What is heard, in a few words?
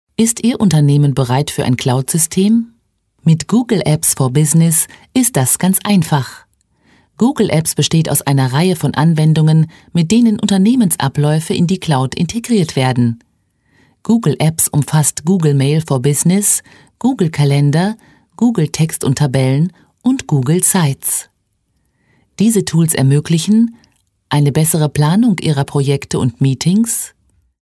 de presentation